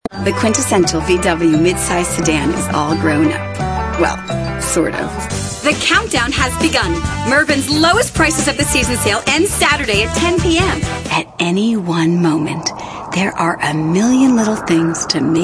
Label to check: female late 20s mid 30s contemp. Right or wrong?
Right